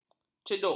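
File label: "cedok", Malay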